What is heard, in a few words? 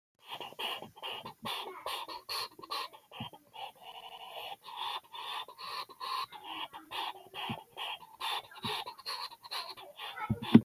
voice message; Multiplayer Piano